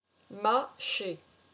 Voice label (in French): "Macher", french; j'ai mâ-ché